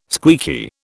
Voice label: "squeaky", British